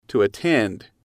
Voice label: "to attend", English